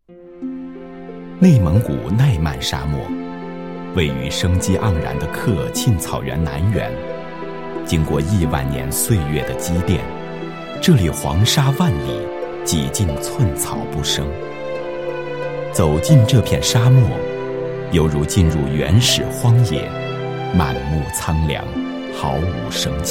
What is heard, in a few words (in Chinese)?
男 专题 娓娓道来, 国语男声配音; 内蒙古沙漠; 磁性专题